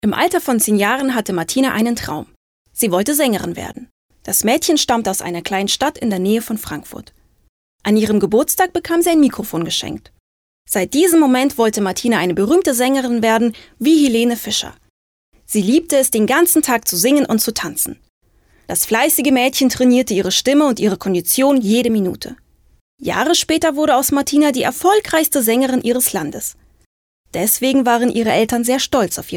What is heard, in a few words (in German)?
Erzählung